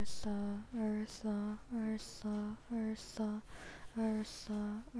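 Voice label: "also", spoken word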